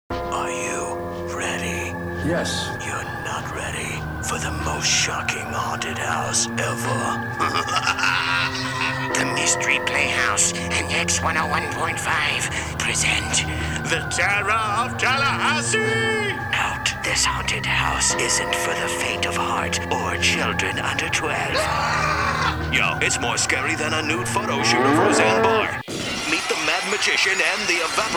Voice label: radio